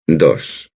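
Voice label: "dos", Spanish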